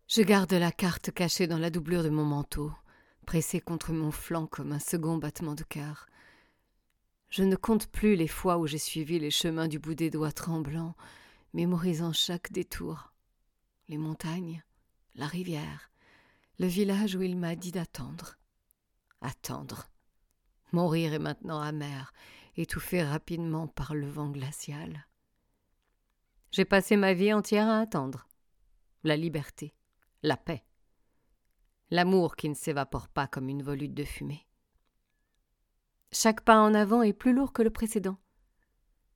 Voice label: VOIX NARRATRICE

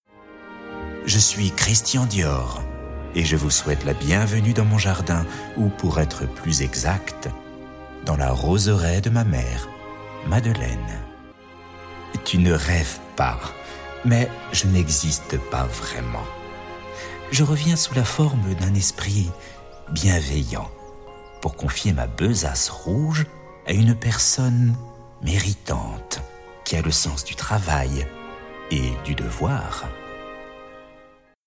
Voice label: Diverses voix animation agression

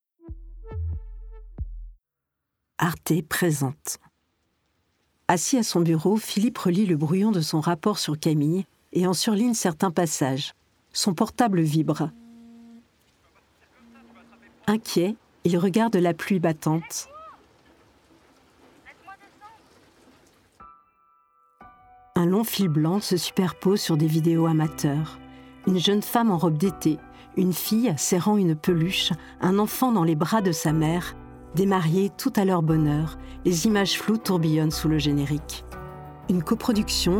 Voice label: pub décalée; la touquétoise

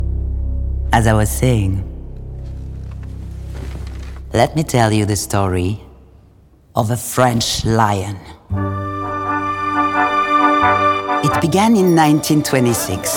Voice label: ENGLISH - voix off; Edith Piaf sur film retrospective 100 ans PUBLICIS

